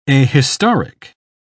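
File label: "ahistoric", British English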